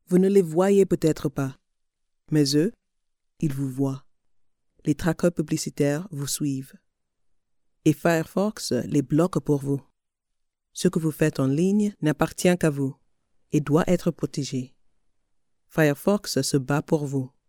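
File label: African French, female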